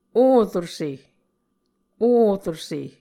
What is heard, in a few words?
"oruse", Muscogee; Mvskoke Words with Nasalized Vowels